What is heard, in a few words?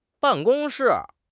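"ban4 gong1 shi4", Chinese; HSK3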